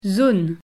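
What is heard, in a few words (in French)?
"zone" French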